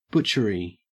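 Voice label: "butchery", Australian English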